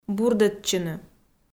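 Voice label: "бурдӧдчыны", Komi, female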